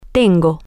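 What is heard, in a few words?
I have, Spanish, female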